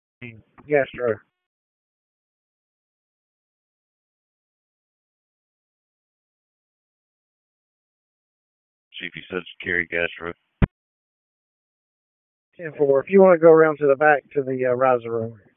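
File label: FIRE Operations, P25; FIRE OPS 1; wake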